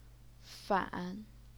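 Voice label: "fan", third tone, Chinese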